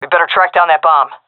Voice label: hear bomb, seal fem; vo; Counter Strike Model Pack; CS:GO